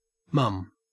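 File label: "mum", Australian English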